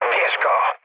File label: attack position mood low, speech pol; War Thunder